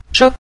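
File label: "zhe" Mandarin Chinese, neutral tone; 说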